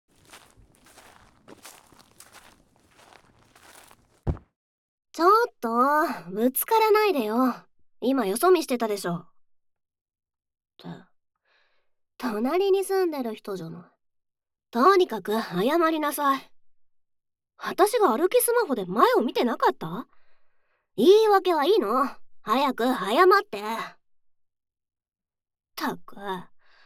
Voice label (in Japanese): 口の悪いギャルママに外で暴言を吐かれる; 隣に住む地雷ギャルママを催眠に掛けてNTR生ハメセックス